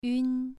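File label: "yun2", Chinese pronunciation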